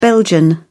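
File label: "belgian", British English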